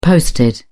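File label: "posted", British English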